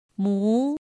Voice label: "mú", Chino

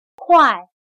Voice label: "快", Chino

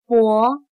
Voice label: "脖", Chino

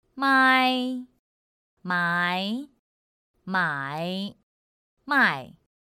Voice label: "māi" "mái" "mǎi" "mài", Chino

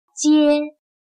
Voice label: "结", Chino

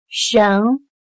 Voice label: "绳", Chino

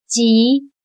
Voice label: "急", Chino

